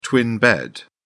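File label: "twin bed", English, British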